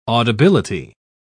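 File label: "audibility", British English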